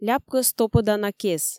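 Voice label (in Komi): "ляпкӧс топӧдана кес", Komi, female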